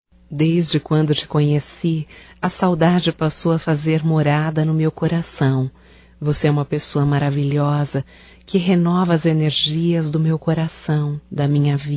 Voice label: SAUDADES ROMANTICO, Telemensagens, Só Voz, fem

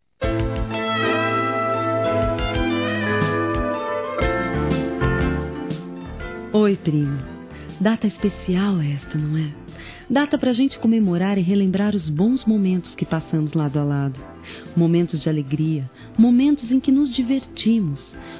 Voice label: Aniversário Prima Feminino; Aniversários; Aniv Familiar

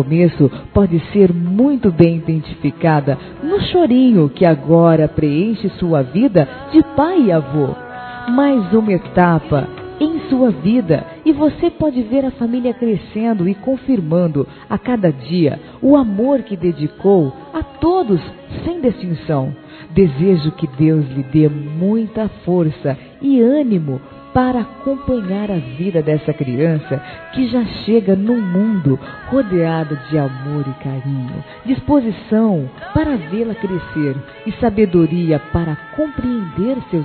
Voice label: Parabéns ao Vovô Feminino; Telemensagens; Felicitações; Telemensagens 2017

